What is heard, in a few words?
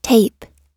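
"tape", English; oxford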